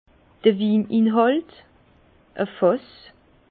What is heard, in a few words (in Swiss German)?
"wininhalt", Bas Rhin; vin